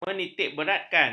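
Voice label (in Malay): "menitikberatkan", Malay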